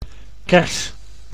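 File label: "kers", Dutch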